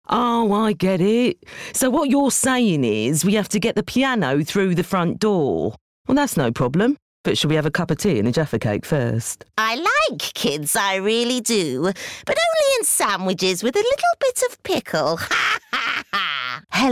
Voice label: Characters Reel